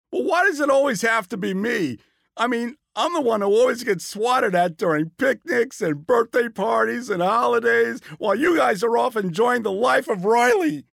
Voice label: Character upset